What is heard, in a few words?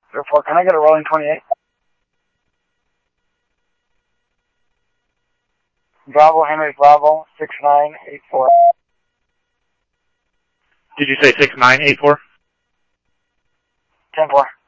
Scottsdale Police, scanner audio